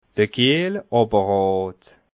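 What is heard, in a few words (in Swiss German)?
"kiehlapparat", Alsatian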